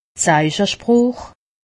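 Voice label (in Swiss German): "zeichesproch", Alsatian; medecine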